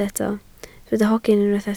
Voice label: "hogyn", Welsh

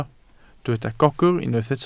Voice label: "gogr", Welsh

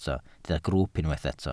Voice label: "grwwp", Welsh

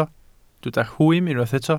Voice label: "rhwym", Welsh

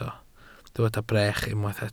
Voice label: "brech", welsh

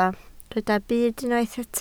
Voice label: "byd", Welsh

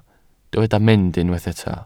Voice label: "mynd", Welsh

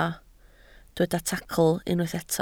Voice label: "tacl", Welsh

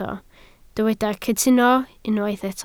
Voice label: "cytuno", Welsh

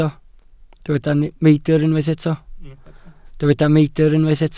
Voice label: "meidr", Welsh

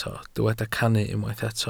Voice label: "cannu", Welsh

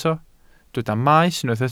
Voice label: "maes", Welsh

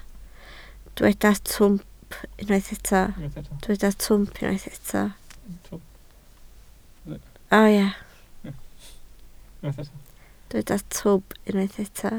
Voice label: "twp", Welsh

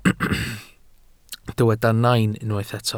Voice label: "nain", Welsh

